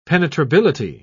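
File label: "penetrability", English, British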